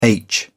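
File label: "aitch", British English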